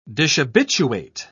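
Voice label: "dishabituate", British English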